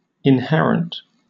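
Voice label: "inherent", British English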